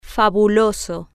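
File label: "fabuloso", Spanish, female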